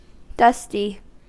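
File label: "dusty", US English; pronunciation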